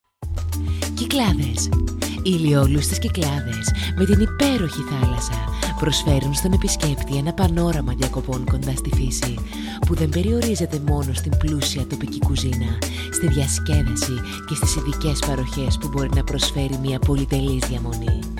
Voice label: female greek voice over narrative

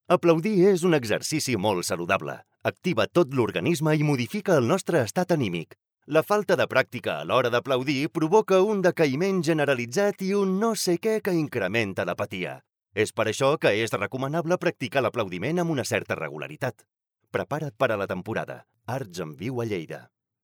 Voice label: male catalan voice over talent upbeat

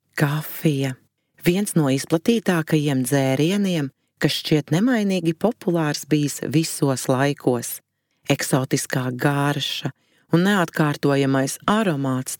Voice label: female latvian voice over upbeat

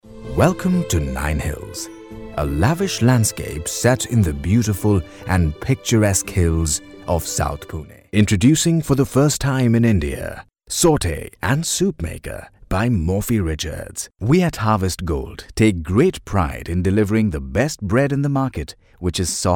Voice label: male indian voice over talent sales

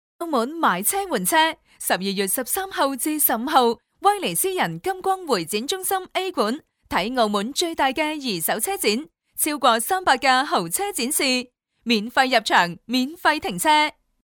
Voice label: female cantonese voice over sales

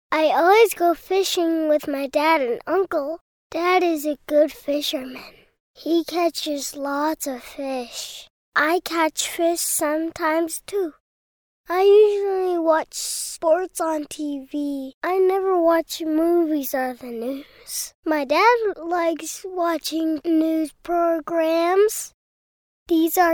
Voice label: child voice over

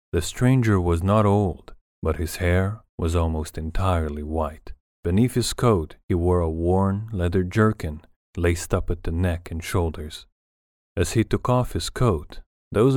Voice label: male hungarian voice over english

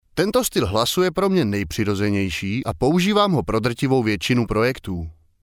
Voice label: male czech voice over upbeat

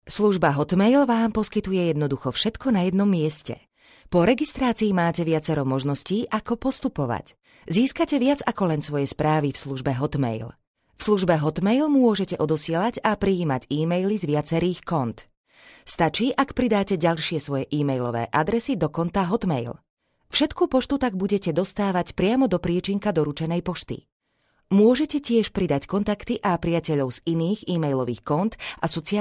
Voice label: female slovakian voice over talent narrative

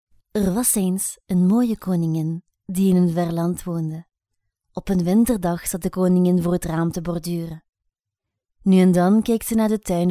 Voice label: female flemish voice over talent upbeat

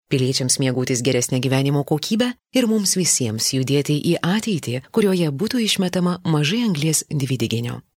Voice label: female lithuanian voice over narrative

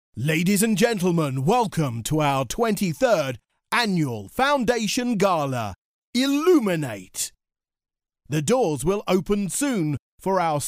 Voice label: male british voice over upbeat, english british

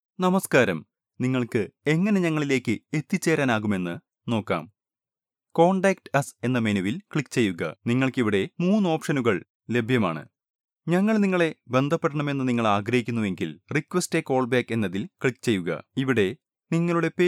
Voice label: male malayalam voice over talent narrative

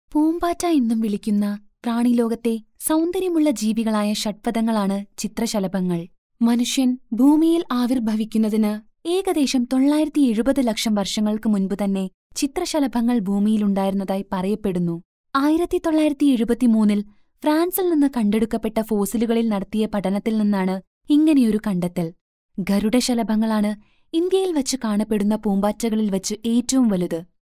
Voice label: female malayalam voice over narrative

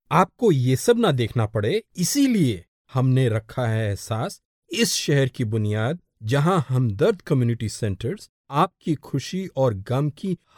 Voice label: male urdu voice over talent narrative

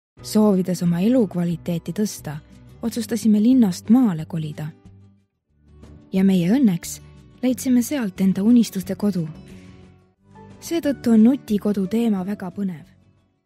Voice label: female estonian voice over character

